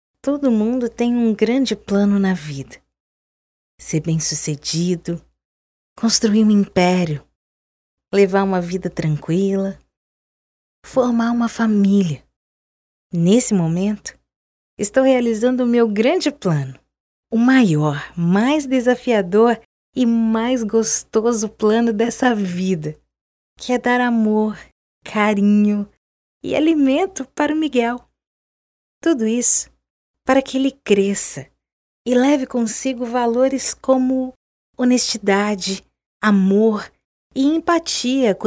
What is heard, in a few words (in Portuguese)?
Emocional